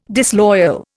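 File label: "disloyal", Indian English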